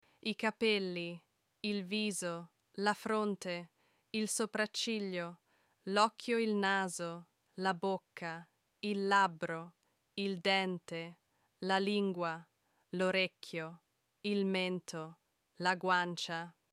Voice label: body parts in italian